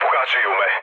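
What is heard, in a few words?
Serbian aircraft damaged mood low, speech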